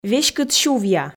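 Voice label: веськыд сювъя, Komi, female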